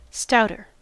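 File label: "stouter", US English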